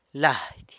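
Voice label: "lady", clothes, San Lucas Quiaviní Zapotec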